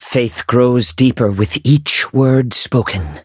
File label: levelup en